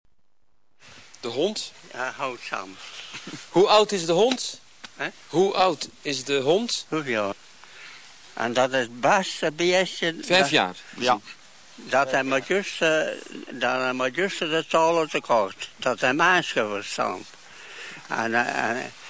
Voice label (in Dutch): westvlaams